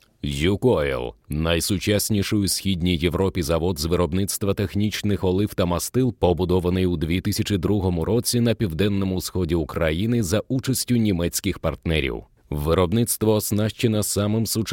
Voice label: Ukraynaca Erkek, ukraynaca seslendirme; erkek sesler

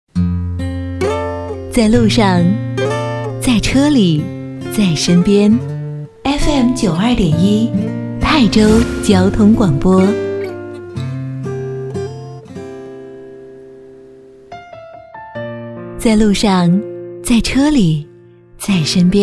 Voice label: Cince Kadin Mandarin Cin Simplified, seslendirme

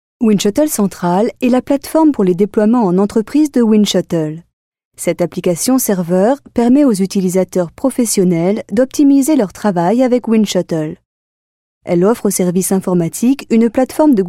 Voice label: Fransizca Kadin; seslendirme

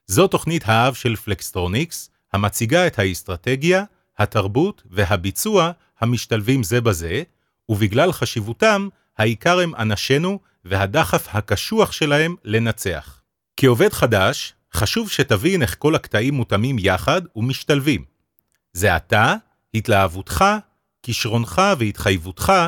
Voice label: Ibranice Erkek; seslendirme

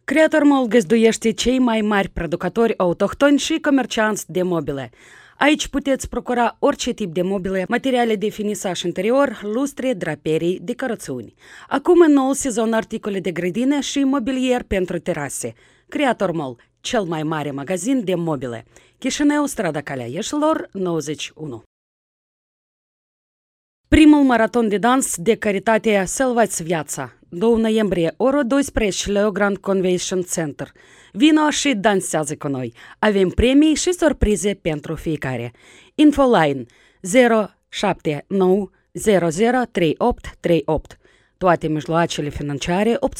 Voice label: Moldovyaca Kadin; moldovyaca seslendirme; kadin sesler

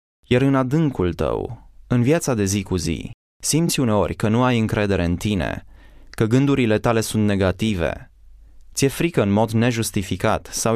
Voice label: Romence Erkek, seslendirme

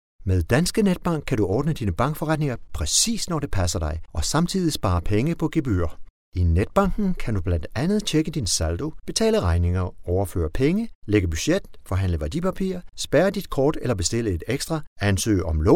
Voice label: Danca Erkek; seslendirme

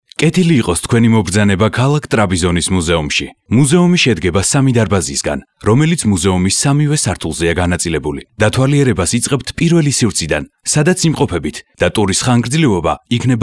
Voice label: Gurcuce Erkek

